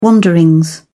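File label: "wanderings", English, British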